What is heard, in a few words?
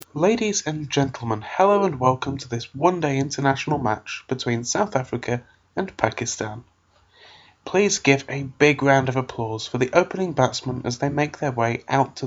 surprisingly preppy englishman